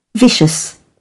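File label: "vicious", British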